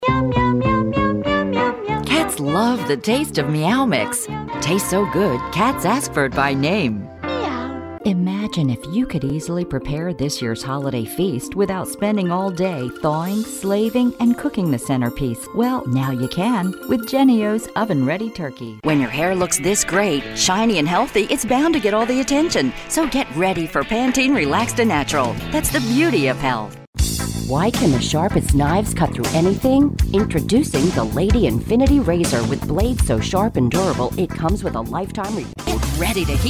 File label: english us and canada female generic